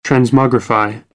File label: "transmogrify", American, English; ə - ɪ